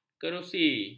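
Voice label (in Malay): "kerusi", Malay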